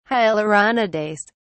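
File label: "hyaluronidase", British English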